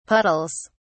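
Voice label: "puddles", British English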